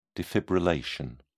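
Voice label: "defibrillation", British English, dictionary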